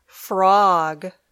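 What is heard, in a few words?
"frog", English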